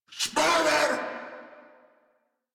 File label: Player Spotted, Battle Barks, en; Grineer Ghoul Drill Sargeant